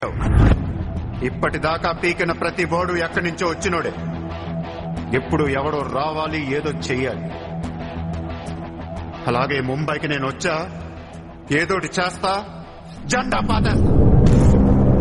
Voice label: Dialogue Ringtone; Businessman; Mahesh Babu